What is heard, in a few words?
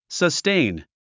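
"sustain", English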